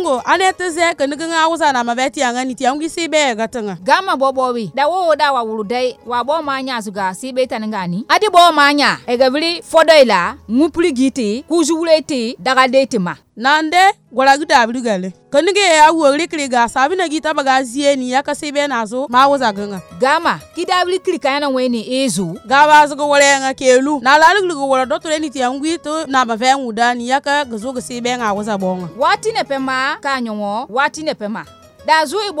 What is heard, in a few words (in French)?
spot ebola pour femmes toma